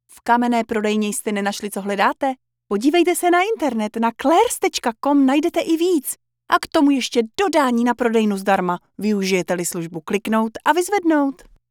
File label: CZECH Male